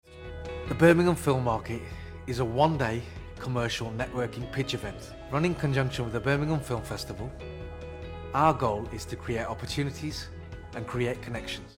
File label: male English West Midlands accent Birmingham promo; english northern accents; film festival